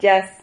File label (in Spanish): "yas", Silabas